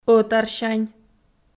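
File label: "ӧтарсянь", Komi, female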